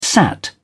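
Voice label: "sat", British English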